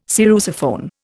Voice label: "sarrusophone", Indian

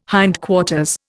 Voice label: "hindquarters", Indian

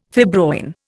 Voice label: "fibroin", Indian

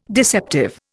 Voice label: "deceptive", Indian

